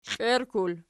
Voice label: "klierklul", archi